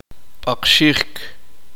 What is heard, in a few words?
uspanteko; frotar